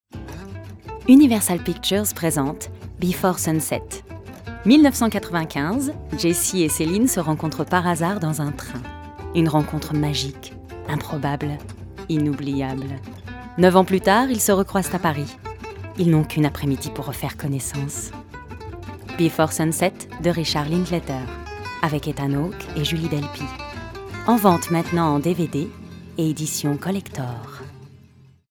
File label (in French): annonce radio before sunset, voix